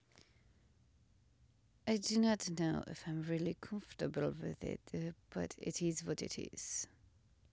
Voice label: Voice Over English and Russian